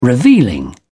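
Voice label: "revealing", British English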